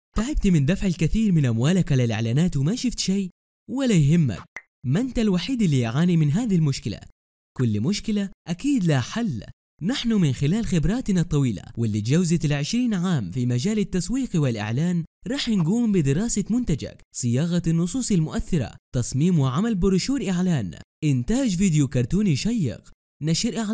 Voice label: Arapca Erkek Suudi Arapcasi, seslendirme; erkek sesler

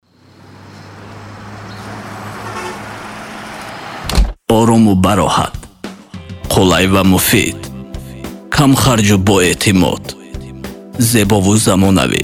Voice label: Tacikce Erkek, seslendirme

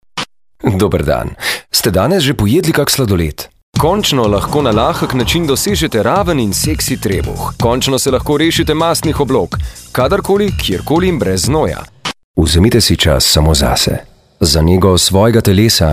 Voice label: Slovence Erkek, slovence seslendirme; erkek sesler

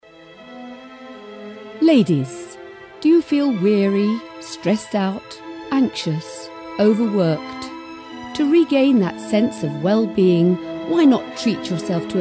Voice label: Ingiliz Ingilizcesi Kadin; seslendirme

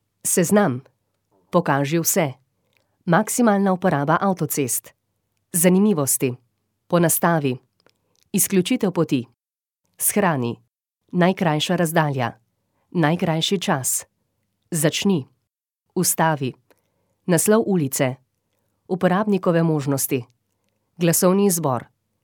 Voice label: Slovence Kadin, seslendirme; kadin sesler

